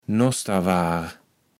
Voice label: "Nosterware", Platt

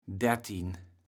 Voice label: "dertien", kaevels platt

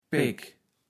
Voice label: "Peck", kaevels platt

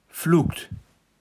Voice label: "flukt", kaevels platt

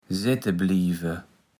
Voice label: "sätteblieve", Platt

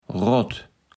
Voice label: "rott", Platt

